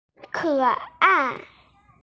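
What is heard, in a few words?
"keai", Mandarin Chinese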